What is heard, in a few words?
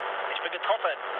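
German aircraft damaged mood low, speech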